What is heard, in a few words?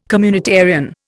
"communitarian", Indian